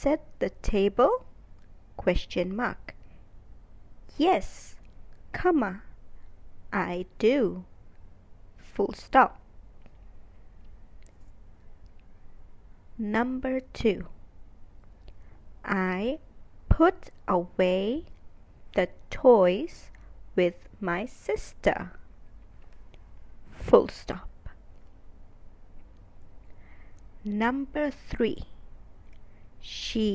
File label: Dictation